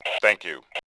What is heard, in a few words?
thanks, Male; voice; Tribes2